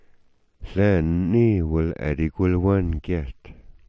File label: "adigwilwan", smalgyax